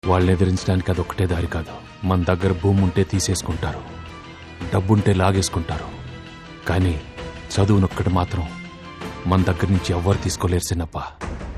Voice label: Dialogue Ringtone; Narappa Trailer; Venkatesh